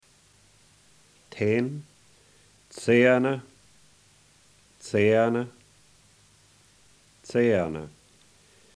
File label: word ten benstraka, egerlander numbers; Puhoi Egerländer Dialect; 10